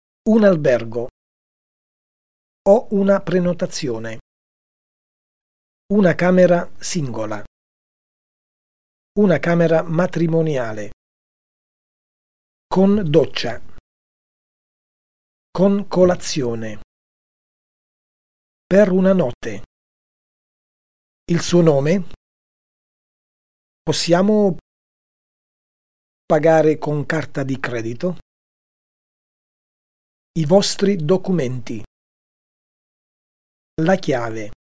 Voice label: Italian